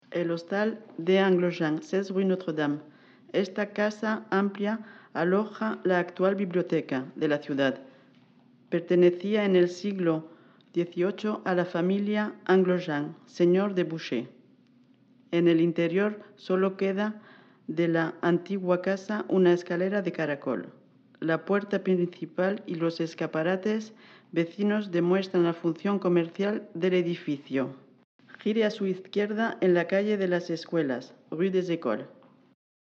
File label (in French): ESPAGNOL; Hotel d'Anglejean